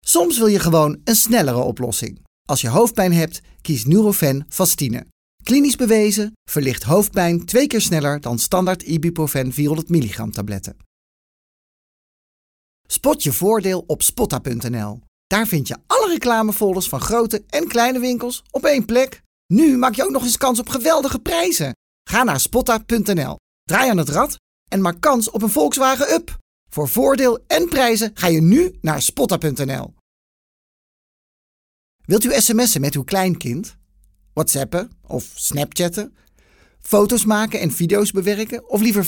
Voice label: neutrale vo

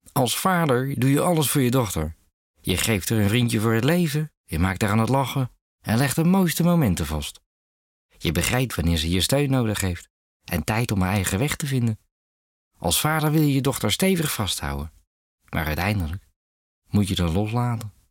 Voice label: Stem Demo Rotterdams

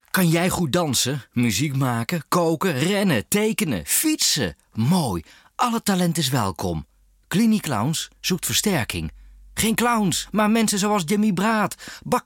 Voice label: Stem Demo Enthousiast; CliniClowns

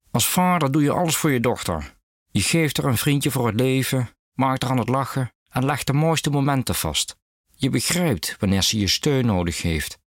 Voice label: Stem Demo Neimeegs

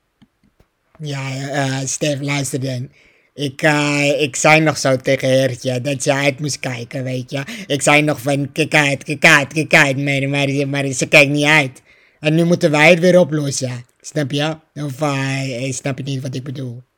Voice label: Animatie mannelijk

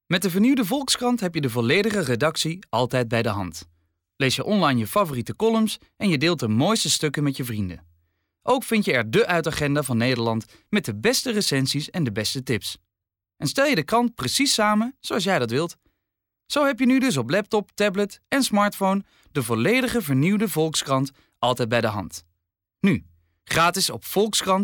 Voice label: rustig; Volkskrant

